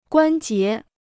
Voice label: "关节", Chinese